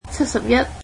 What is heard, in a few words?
"seventy one", Cantonese